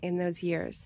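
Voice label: "in those yearS", American English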